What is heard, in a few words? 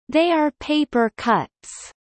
Kelly low; spring festival